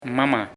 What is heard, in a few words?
"mother", "madre", Nasa Yuwe; paez